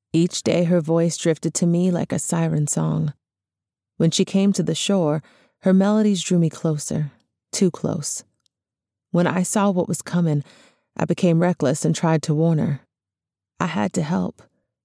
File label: Narration Sample; The Fourth Law of Kanaloa